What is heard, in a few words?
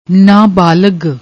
"naa balag", Punjabi; ਨਾਬਾਲਗ